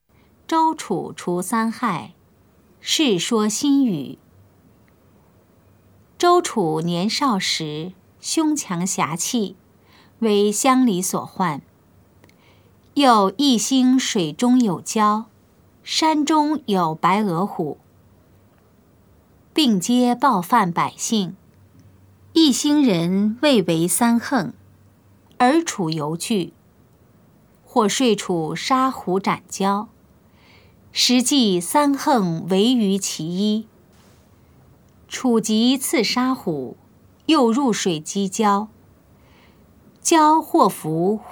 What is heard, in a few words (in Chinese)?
putonghua; 周處除三害; 普通話